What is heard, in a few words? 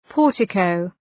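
"portico", English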